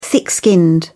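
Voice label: "thick-skinned", British English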